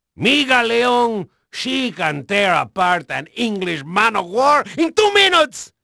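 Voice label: Spanish male, voice; Pirates Of The Caribbean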